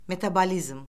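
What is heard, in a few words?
"metabolizm", Azerbaijani; Orfoqrafiya Lüğəti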